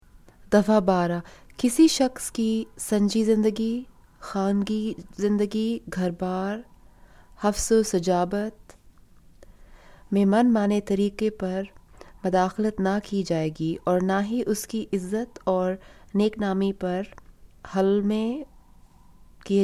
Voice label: Urdu